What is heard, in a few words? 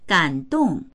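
"gan3dong4", Mandarin Chinese; 感动; 感動（する）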